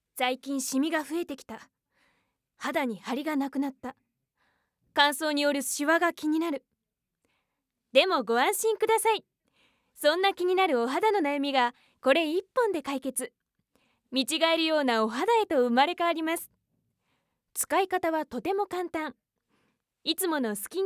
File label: female